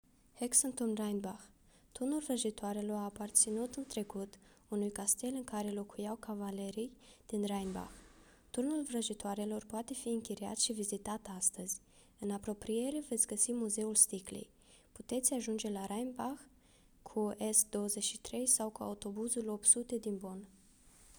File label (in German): Rumanisch; Hexenturm Rheinbach